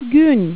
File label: Gun, turkish